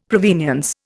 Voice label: "provenience", Indian; Dictionary